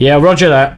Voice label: Yes, male, voice commands; svencoop

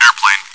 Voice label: chitchat, bot, voice commands; svencoop

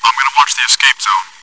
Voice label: chitchat, bot, voice commands; svencoop

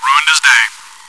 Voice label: cheer; svencoop

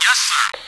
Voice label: radio yes

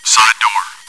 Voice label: chitchat, bot, voice commands; svencoop

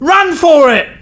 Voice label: Run, male, voice commands; svencoop

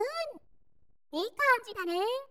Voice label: yes, voice commands; svencoop; Miku

